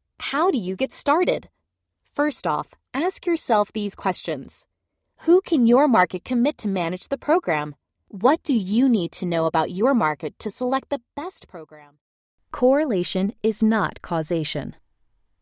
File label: Narration